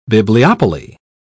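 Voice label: "bibliopoly", British English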